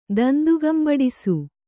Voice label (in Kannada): "ದಂದುಗಂಬಡಿಸು", kannada